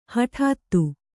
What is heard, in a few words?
"ಹಟಾತ್ತು", Kannada